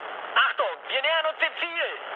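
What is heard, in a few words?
German near waypoint mood high, speech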